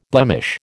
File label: "blemish", American